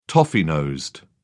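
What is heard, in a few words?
"toffee-nosed", British English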